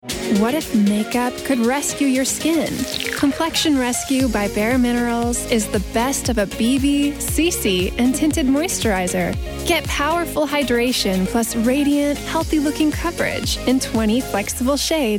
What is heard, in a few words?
Commercial; Bare Minerals